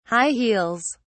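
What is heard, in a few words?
"high heels", British English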